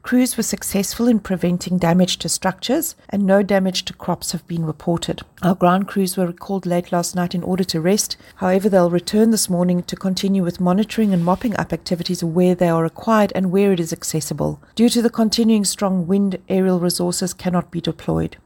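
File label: English; Fire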